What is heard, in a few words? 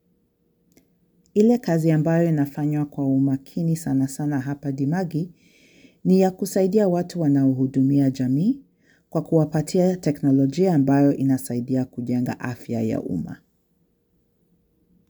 swahili; dimagi